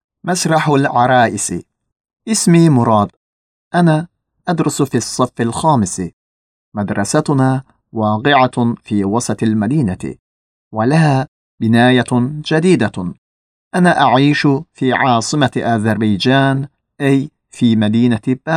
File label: arab